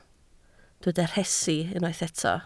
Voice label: "rhesi", Welsh